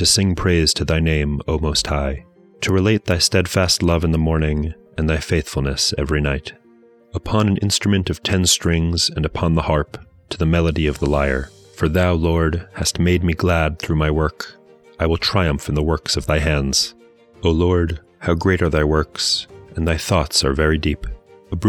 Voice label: poetry and prayer psalm english; Meir Soloveichik